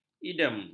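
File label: "idem", Malay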